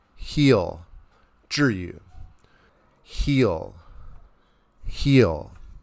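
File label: "heal", English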